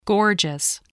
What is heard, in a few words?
"gorgeous", spelling